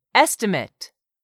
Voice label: "ESTIMATE", english; 3000 words